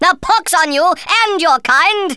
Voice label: you'll regret that, Speech Thespian; Worms Armageddon